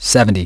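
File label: "seventy", English; iOS 4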